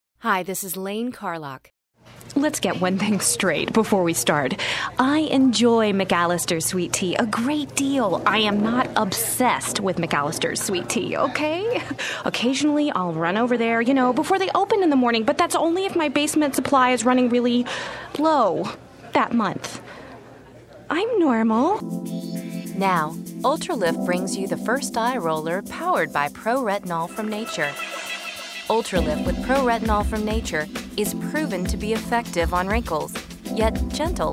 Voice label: F Commercial; voiceover womens